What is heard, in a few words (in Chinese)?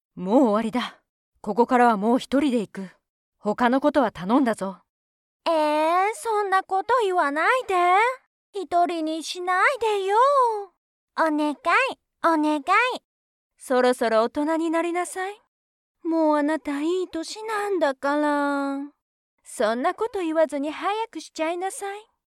日语女 视频游戏 Videogames